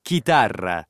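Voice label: "chitarra", Italian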